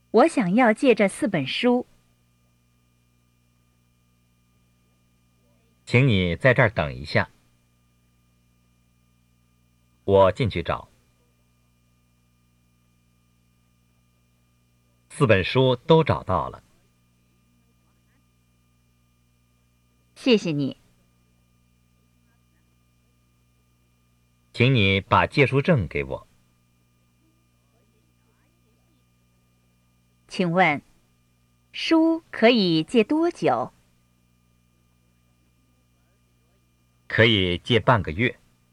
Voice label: Dialogue Slow Speed